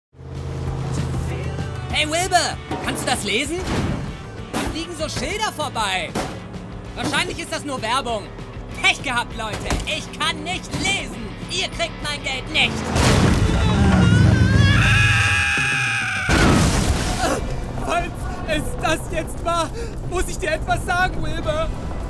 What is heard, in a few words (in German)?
Synchron Animationsfilm Waschbaer; LOFT VOICES